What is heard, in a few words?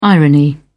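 "irony", British English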